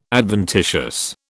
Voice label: "adventitious", British